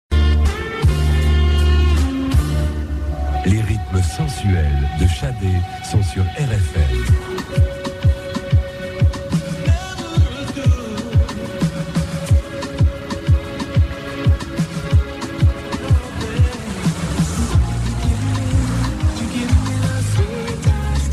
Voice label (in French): Jingle la voix sensuelle; RFM